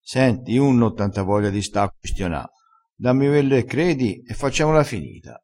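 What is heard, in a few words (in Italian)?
"quistiona", dialettale, crespinese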